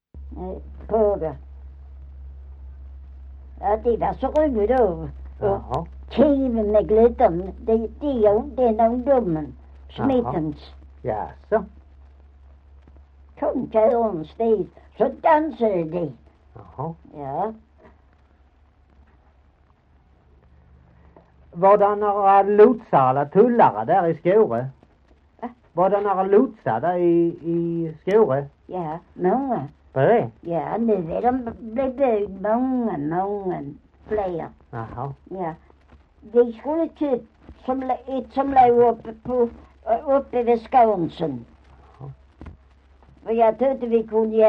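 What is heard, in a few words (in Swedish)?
Maglarp Skåne, dialekter; Folkminnen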